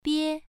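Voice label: "bie1", Chinese pronunciation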